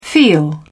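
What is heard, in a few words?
"feel", British English, dictionary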